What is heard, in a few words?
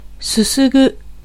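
Japanese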